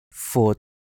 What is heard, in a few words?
"fut3", cantonese